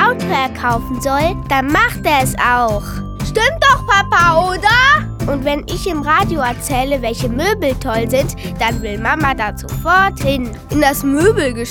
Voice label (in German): Werbung Kinderstimmen